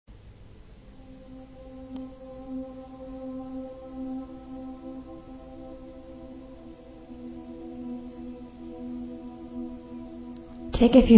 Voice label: Guided Meditation; Ocean Of Bliss; Release Your Wings; Experiencing God Series